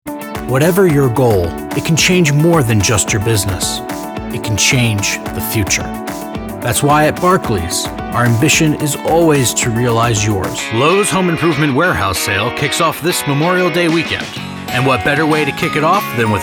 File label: Commercial Voice Over